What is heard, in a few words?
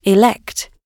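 "elect", British English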